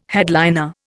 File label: "headliner", Indian